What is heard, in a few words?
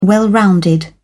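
"well-rounded", British English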